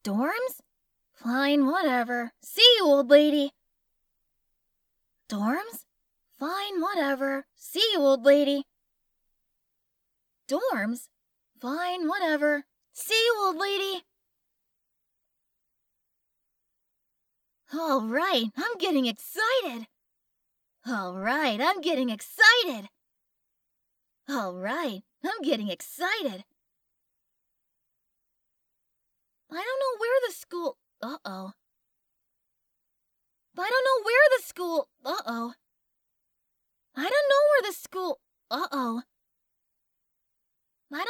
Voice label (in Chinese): 外籍英语女 角色学生